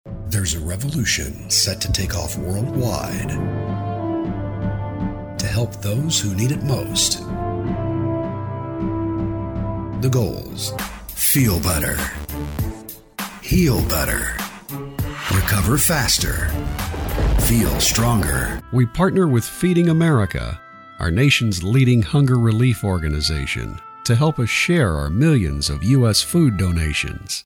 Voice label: Corporate Branding